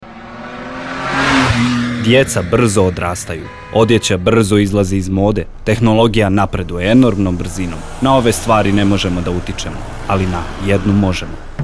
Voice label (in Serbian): Радио џингл; кампања Лако је брзину смањити